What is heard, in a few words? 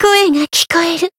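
encounter, Japanese; pizzica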